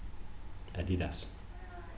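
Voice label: "Adidas", British, male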